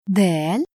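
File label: "daal", Arabic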